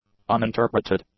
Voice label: "uninterpreted", English; adjectives